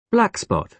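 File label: "blackspot", British English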